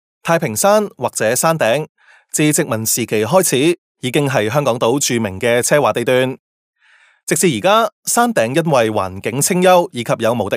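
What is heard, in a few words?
Cantonese male corporate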